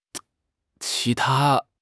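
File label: "qi2 ta1", Mandarin Chinese; HSK3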